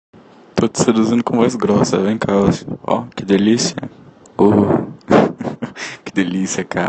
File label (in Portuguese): voz grossa